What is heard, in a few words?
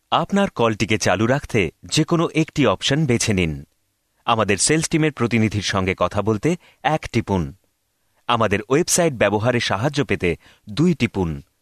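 Bengali M IVR, male; voice over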